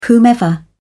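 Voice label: "whomever", British English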